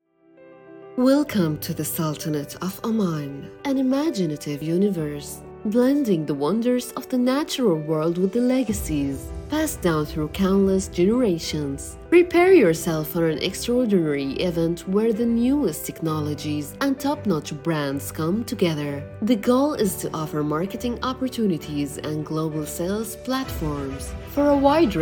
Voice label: English; COSMO Big Brands Expo

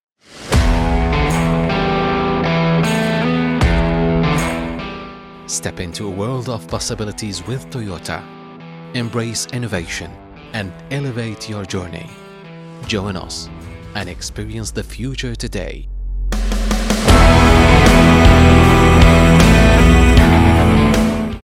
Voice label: Promo car ENG

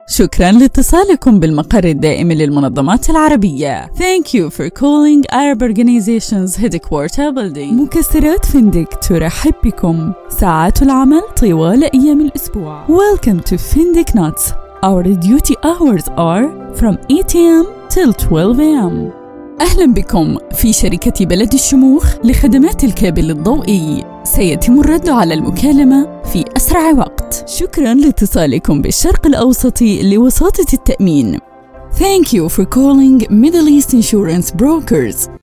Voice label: IVR, Arabic

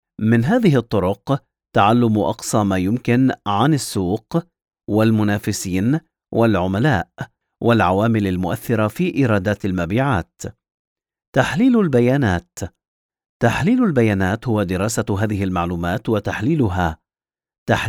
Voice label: e-learning

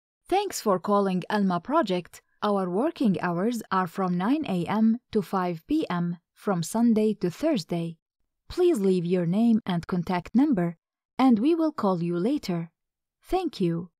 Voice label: English IVR

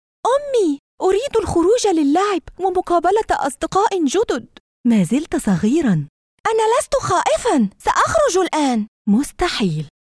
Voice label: Dubbing Animation

